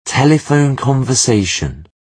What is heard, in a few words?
telephone conversation